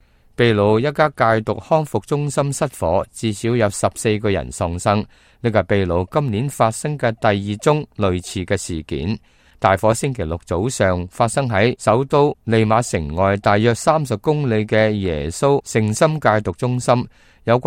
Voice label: cantonese, news